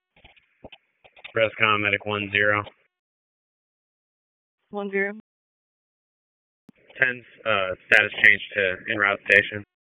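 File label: EMS Dispatch, p25; wake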